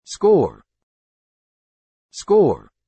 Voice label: "score", English